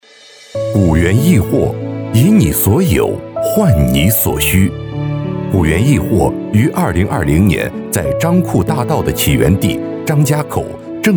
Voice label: 男 企业宣传片 大气讲述; 古垣易货

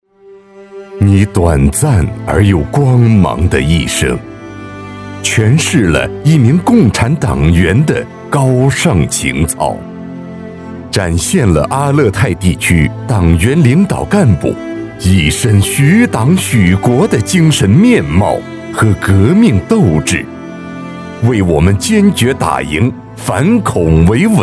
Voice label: 男 伤感悲怆; 人物专题片; 某县委书记

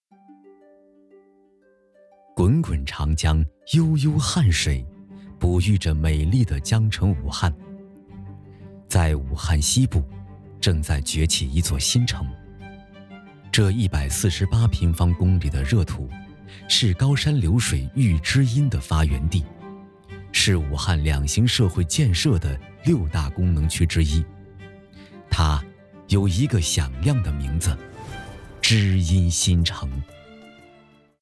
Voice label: 男; 城市专题片; 武汉新城

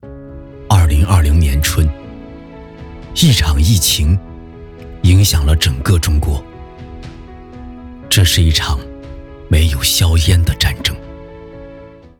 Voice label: 男 人物专题片; 疫情下的医生

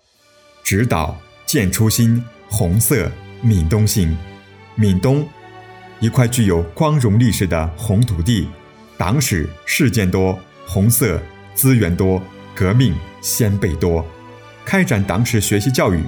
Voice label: 男 专题 党建政务

